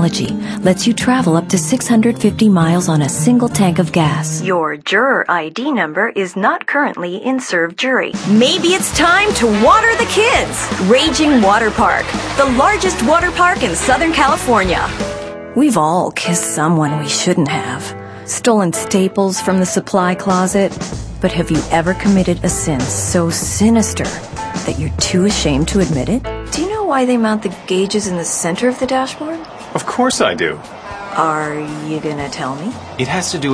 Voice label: female, late 30s early 40s, clean